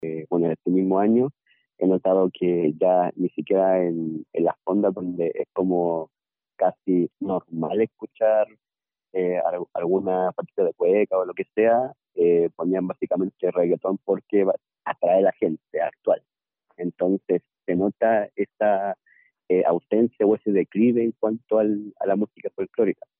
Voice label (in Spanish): cuña reportaje